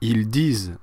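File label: "dire ils", French; frans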